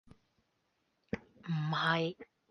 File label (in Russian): Голоса, Гонконгский; commonvoice